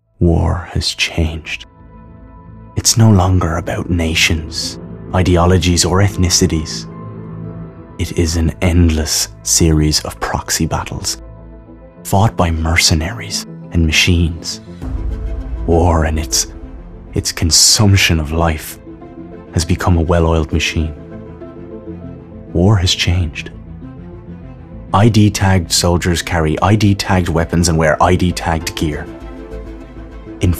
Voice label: Voice Reel Narrative